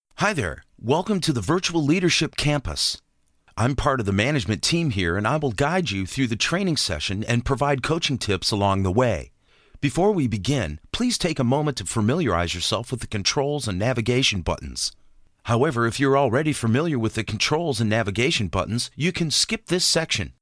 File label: English Canada